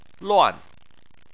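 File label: "luan", Chinese, fourth tone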